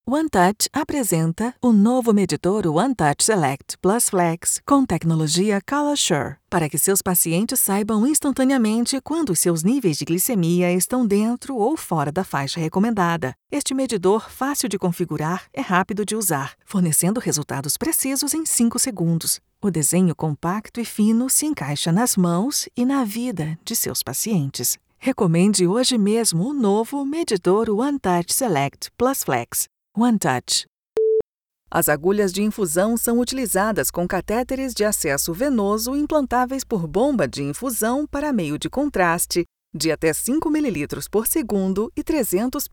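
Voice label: PT BR Corporate, Brazilian Portuguese; GoLocalise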